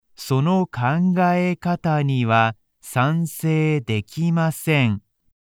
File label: beginner sono kangae kata ni wa slow speed, japanese